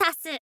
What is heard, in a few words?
"tasu", girl; voice; info girl